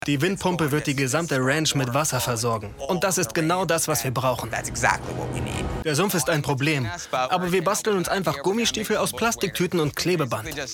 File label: VoiceOver; USA; Reality TV